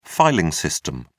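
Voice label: "filing system", English, British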